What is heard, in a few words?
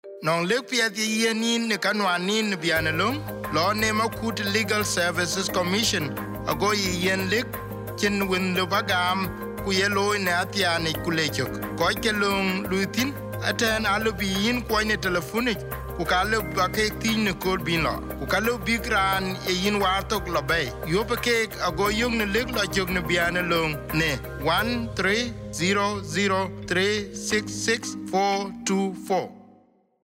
DINKA